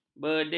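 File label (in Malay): "bedil", Malay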